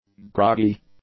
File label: "groggy", english; adjectives